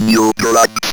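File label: ROBVOX, robot voice; E-Lab; X Static Goldmine 1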